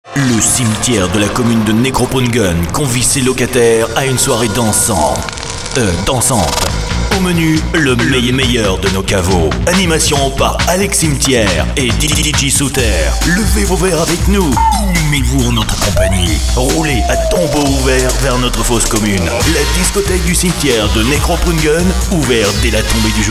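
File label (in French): spot, spots radio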